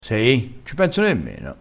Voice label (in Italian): "sei", vocabolario dialettale